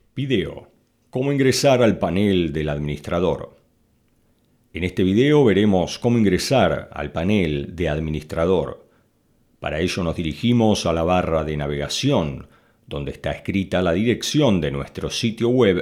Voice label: Spanish (LA) Male